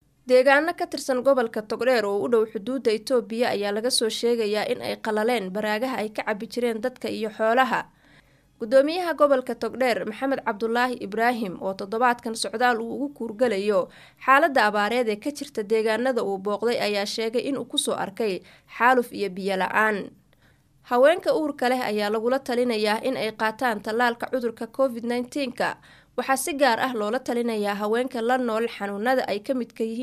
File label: Somali F; Studio44